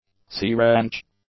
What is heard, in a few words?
"C-wrench", English; nouns